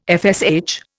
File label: "FSH", Indian